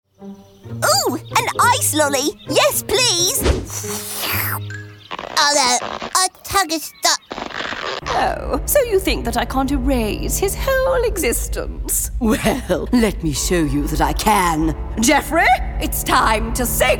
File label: english british animation